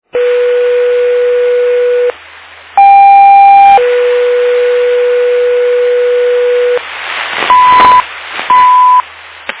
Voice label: Fire Dispatch